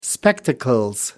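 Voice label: "spectacles", English, British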